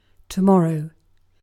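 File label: "tomorrow", British English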